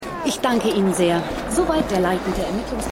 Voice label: Reporterin; Silent Witness

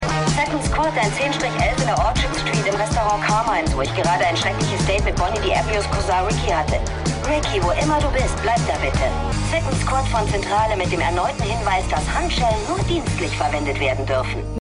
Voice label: Disponentin; The Unusuals

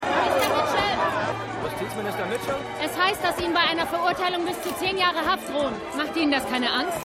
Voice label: Reporterin Reporter; Gaslit

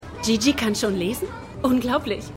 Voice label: Mutter; AtlantaMedical

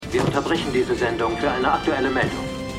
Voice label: TV Sprecher; AlaskaDaily

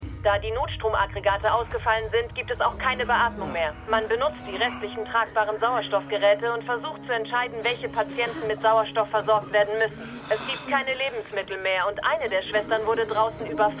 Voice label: Reporterin; Memorial Hospital; MEMORIAL HOSPITAL - DIE TAGE NACH HURRIKAN KATRINA (DF 2022); Five Days at Memorial

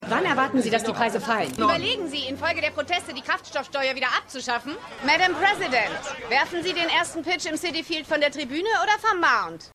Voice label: Reporterin; Madam Secretary

